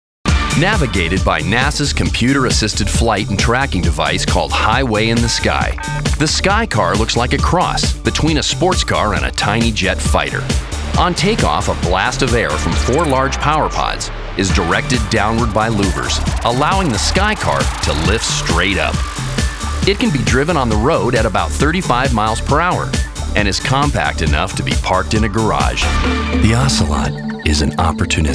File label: narr